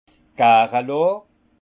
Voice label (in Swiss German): "garelon", alsace; vin; cuvaison